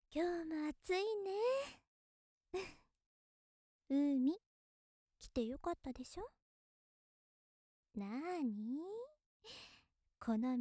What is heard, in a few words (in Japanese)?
優しいお姉さん 夏だね